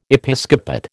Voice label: "Episcopate", American

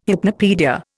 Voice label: "hypnopaedia", Indian

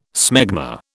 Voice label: "smegma", British

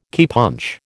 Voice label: "keypunch", American

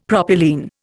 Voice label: "propylene", Indian; Dictionary

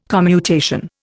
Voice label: "commutation", Indian

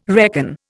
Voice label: "reckon", Indian

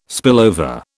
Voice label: "spillover", British

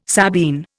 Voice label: "Sabine", Indian

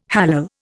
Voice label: "hallal", Indian

